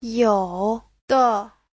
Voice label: "you3de5", Chinese